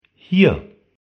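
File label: "hier", German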